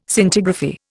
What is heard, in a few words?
"Scintigraphy", Indian